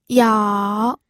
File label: "yao3", pinyin